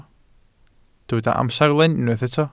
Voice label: "amserlen", Welsh

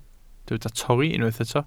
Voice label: "torri", Welsh

